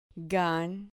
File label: "gan", Spanish syllable